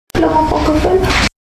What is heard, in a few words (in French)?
Flamand francophone word